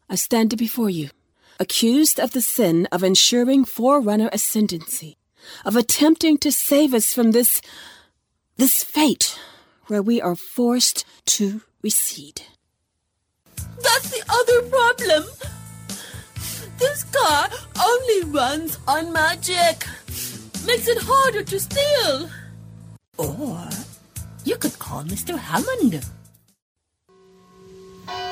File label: english us and canada female generic